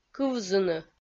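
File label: "кывзыны" Komi, female; kpv audio dict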